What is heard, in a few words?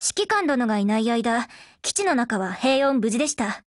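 HELLO Japanese, Voice; GF Alarm; MAT49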